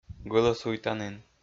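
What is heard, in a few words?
"гӧлӧсуйтанін", Komi, male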